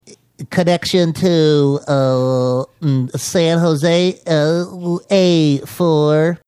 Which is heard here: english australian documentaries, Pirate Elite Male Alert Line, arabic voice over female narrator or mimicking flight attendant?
mimicking flight attendant